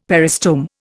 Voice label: "peristome", Indian; Dictionary

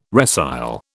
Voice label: "resile", British

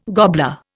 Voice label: "gobbler", Indian

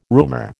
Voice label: "roomer", American

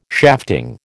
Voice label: "shafting", American

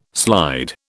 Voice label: "slide", British

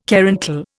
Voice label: "caruncle", Indian

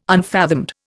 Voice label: "unfathomed", Indian

